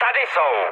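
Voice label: enemy detected, Czech, mood med; War Thunder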